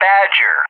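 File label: "Badger", English; JTAC; Callsign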